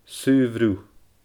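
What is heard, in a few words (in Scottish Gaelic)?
"saobh shruth", Scottish Gaelic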